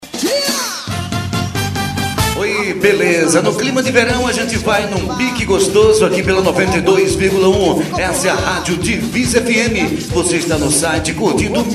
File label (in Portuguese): voz ao vivo; divisa fm